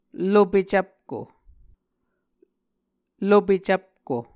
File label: "lope cvpko", Muscogee